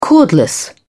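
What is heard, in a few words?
"cordless", British English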